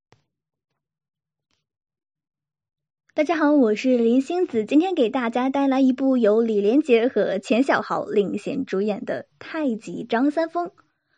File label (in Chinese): 电影解说